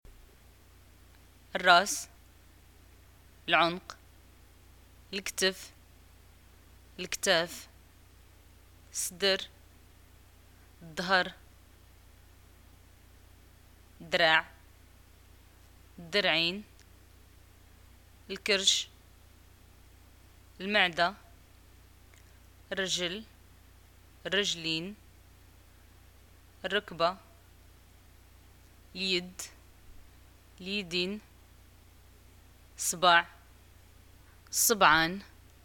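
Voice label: body parts, Moroccan arabic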